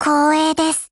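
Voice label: Japanese, Voice; GF Alarm; Six12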